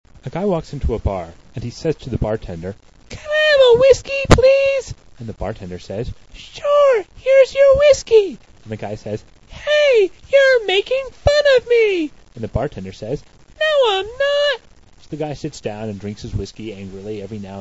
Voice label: high squeaky voice